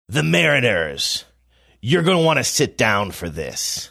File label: saying you're gonna want to sit down for this sports radio promo voice; the mariners